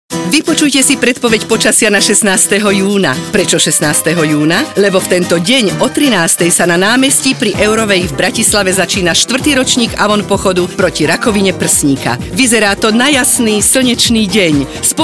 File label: Radio spot